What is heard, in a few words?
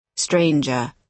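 "stranger", British English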